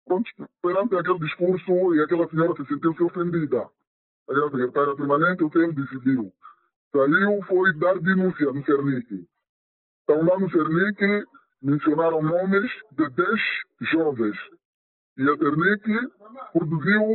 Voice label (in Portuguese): voz distorcida; TotalEnergies; Maganja